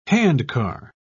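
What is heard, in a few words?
"handcar", British English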